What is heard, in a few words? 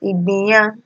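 "igbiyen", Nigerian Pidgin, female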